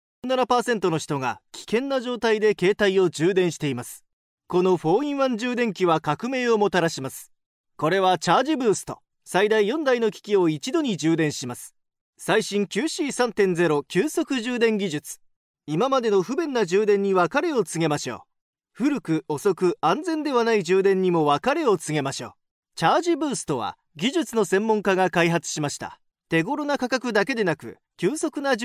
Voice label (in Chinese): 日语男; 外语; 小语种